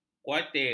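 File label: "khuatir", Malay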